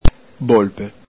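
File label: "bolpe", Veneto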